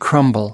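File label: "crumble", US English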